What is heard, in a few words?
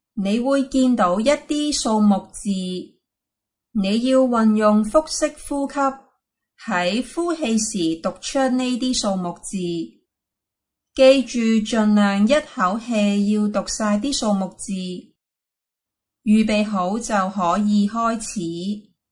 instruction breathing exhale; aphasia